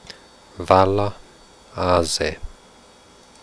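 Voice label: quenya voiced fricatives; quenya vcd frics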